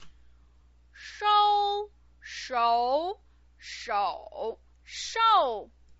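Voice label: "shou", Mandarin Chinese pinyin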